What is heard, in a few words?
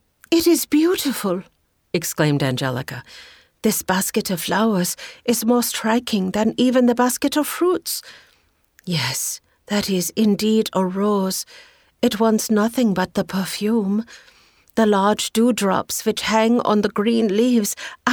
Audiobook Sample; Painted Fly